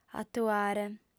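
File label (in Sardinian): "atuare", female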